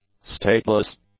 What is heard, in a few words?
"stateless", English; adjectives